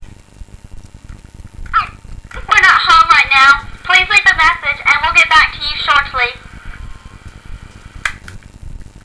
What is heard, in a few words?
ans machine